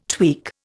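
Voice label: "tweak", Indian English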